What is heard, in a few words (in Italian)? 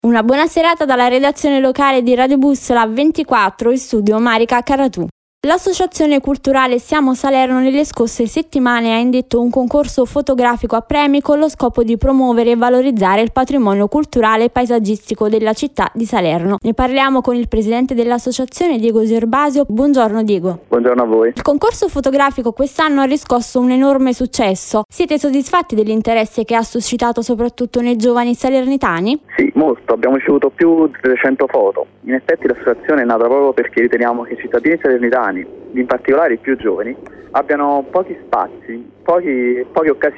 news locali